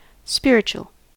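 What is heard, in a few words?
"spiritual", American English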